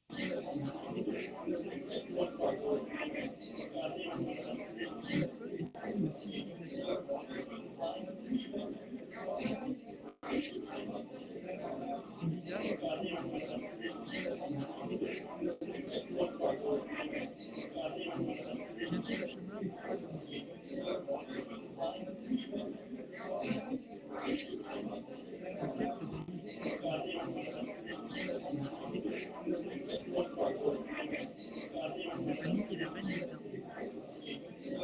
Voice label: Pub Noise French Male Hands-free; AMR-WB; 150ms Delay - 10ms Jitter - 1% Loss